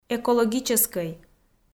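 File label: "экологическӧй", Komi, female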